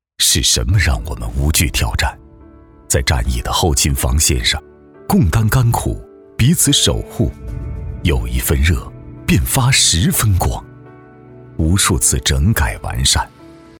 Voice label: 男 战疫宣传片 大气深沉

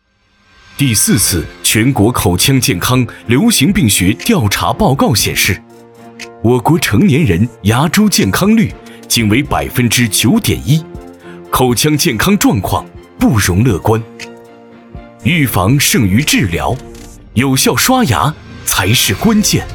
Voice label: 男 专题 口腔健康

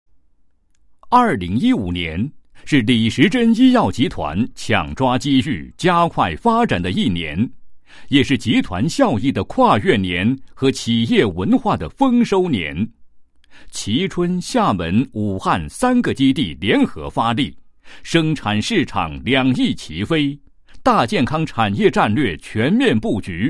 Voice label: 男-医院; 某医药集团2015年工作集锦

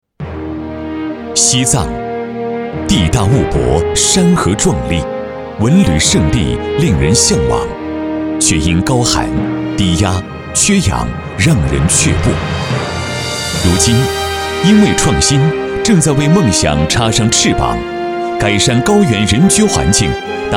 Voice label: 男 宣传片 高原

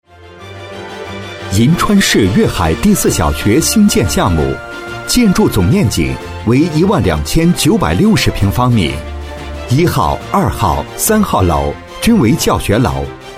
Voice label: 男-解说

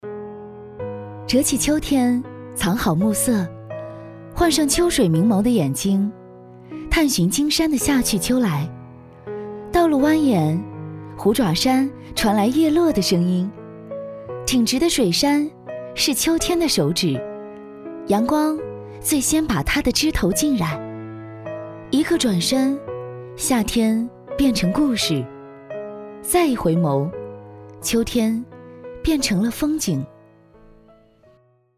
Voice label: 女,文旅旁白,秋天

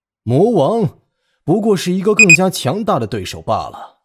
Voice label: 男 角色 剑圣

